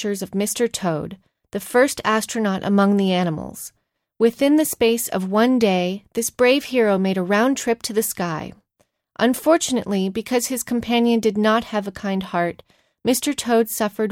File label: Spanish - Argentina; LatinAmerica